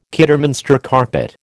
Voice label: "Kidderminster carpet", American